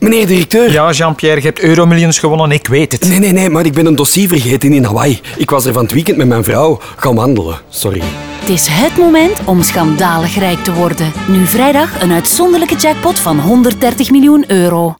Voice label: radio; eum jackpot 130m